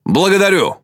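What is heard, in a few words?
Russian Speech Quick Commands Thank You; World Of Warships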